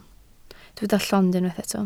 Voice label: "llond", Welsh